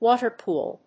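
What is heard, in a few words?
"water pool", English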